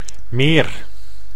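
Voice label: "meer", Dutch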